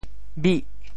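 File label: "bih8", Teochew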